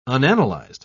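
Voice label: "unanalyzed", British English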